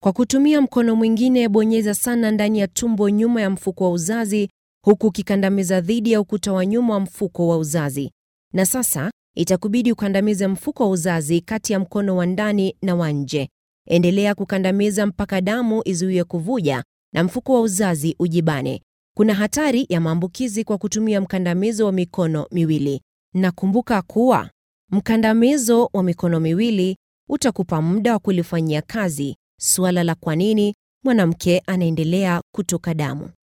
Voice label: Swahili Female